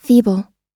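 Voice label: "feeble", American English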